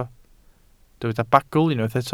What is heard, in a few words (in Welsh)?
"bagl", Welsh